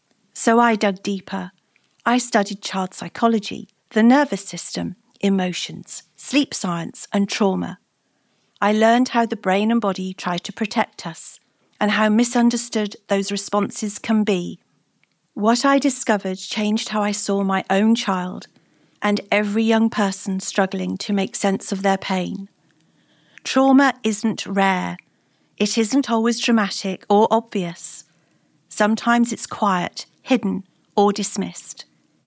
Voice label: Audiobook; Understanding Your Trauma